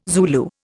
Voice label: "Zulu", Indian